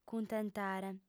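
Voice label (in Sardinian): "cuntentare", female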